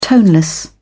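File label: "toneless", British English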